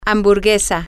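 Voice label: hamburger, spanish, female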